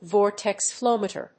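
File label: "VORTEX FLOW METER", English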